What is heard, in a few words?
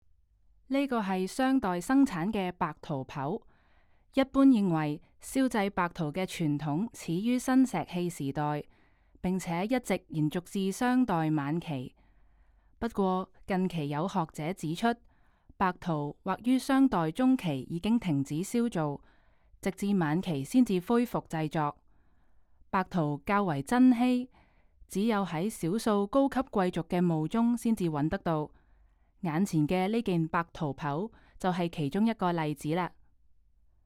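Cantonese, audio guide; g3-clay-to-treasure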